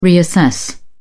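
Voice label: "reassess", British English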